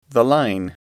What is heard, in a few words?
"line", English